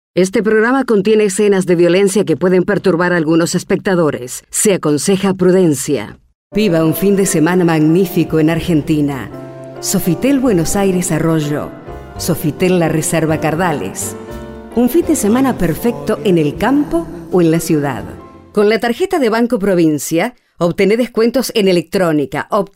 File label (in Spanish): Estilo Ingles Imponente, voces femeninas